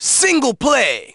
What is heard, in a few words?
"Single Play", announcer; DDR Extreme SFX; ScreenSelectStyle2 comment single; Dance Guru's DDR Archive